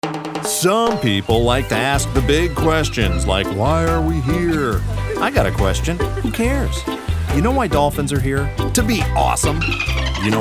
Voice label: Commercial